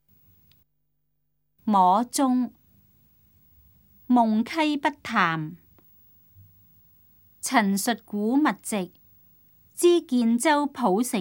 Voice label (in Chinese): cantonese; 摸鐘; 粵語